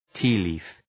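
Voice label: "tea leaf", English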